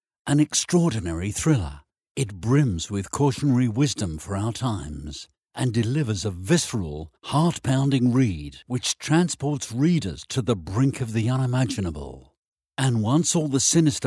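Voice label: English British, male, voice over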